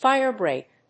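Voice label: "firebreak", English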